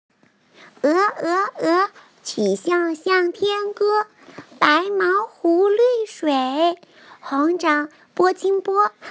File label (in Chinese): 童音古诗